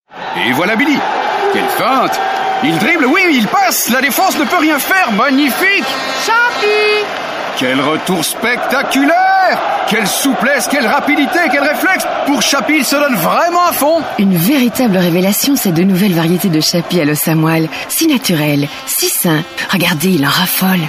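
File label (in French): Belgian French, male, commercial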